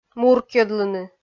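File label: "муркйӧдлыны", Komi, female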